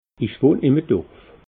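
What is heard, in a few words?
"ich wohn dorf", Alsatian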